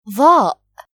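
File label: "thaa", Arabic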